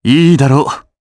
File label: Vox Human, jp; heroes; Shakmeh; kingsraid